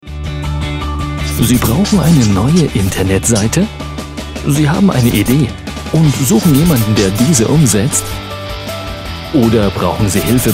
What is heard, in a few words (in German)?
Erklaerfilm; Schackmedien